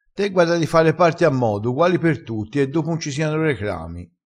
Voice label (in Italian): "recramo", vocabolario dialettale